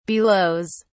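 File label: "belows", British English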